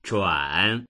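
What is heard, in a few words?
"zhuan3", Mandarin Chinese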